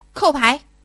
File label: Kou Pai, Man; Woman; TianDaKeng